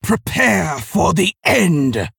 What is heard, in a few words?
Female Orc Idle